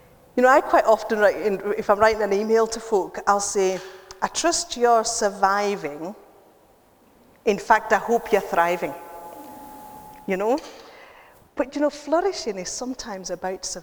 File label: Echo and Noise Room Untreated